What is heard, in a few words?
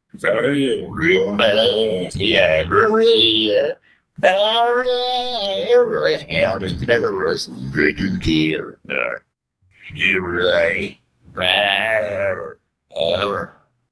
american southern texan m